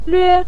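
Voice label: "lve", Mandarin Chinese neutral tone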